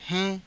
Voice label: "hai~", Hindi